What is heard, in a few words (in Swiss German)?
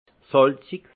"salzig", alsace; vin